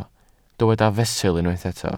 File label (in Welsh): "fesul", Welsh